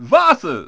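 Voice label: announcer, Japanese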